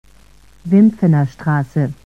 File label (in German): Ansage Haltestelle Wimpfener Str; SSB AG